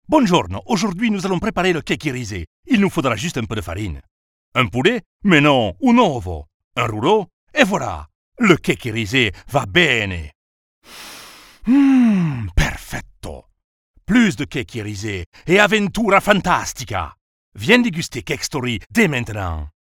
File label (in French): Voix comedie Italien; Cake Story